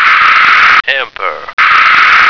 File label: "hamper", American